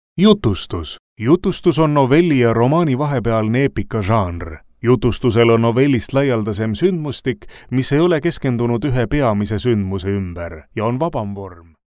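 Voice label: Estonian, male, narration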